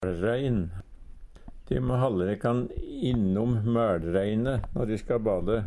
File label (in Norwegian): rein, Numedalsmål